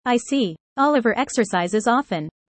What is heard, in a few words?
user fast; how tall